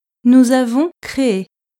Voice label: "nous avons créé", French